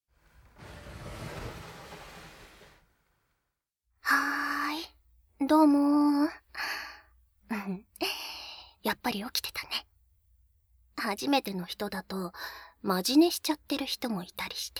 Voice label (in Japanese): 夜這い, ASMR; ひかるの夜這い